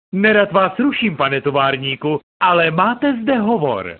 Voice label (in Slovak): zvonenie hlásky cz